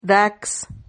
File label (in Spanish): "rax", Silabas